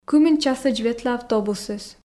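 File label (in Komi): кымын часӧдз ветлӧ автобусыс, Komi, female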